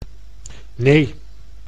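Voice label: "nee", Dutch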